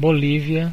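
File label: "bolivia", Brazilian Portuguese; gcompris; geography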